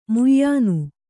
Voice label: "ಮ್ಯಾನಾ", Kannada

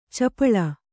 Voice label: "ಚೆಪ್ಪಾಳೆ", Kannada

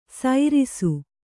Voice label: "ಸೈರಿಸು", Kannada

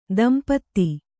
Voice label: "ದಂಪತ್ತು", Kannada

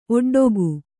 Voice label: "ಒಡ್ಡಿಕೆ", Kannada

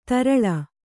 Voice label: "ತೆರಳಿ", Kannada

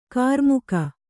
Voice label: "ಕರಿಮುಖ", Kannada

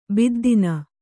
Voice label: "ಬದ್ದನೆ", kannada

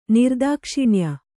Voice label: "ನಿರ್ದಾಕ್ಷಿಣ್ಯ", Kannada

